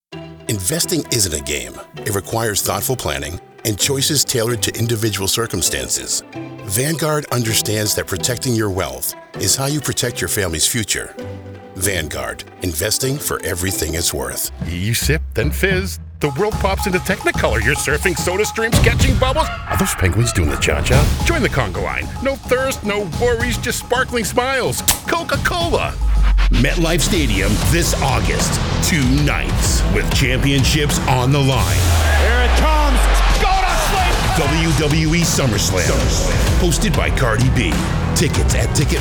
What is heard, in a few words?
Commericial